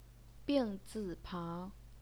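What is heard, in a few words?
"bing zi pang", Mandarin Chinese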